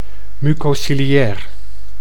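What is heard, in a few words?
"mucociliair", Dutch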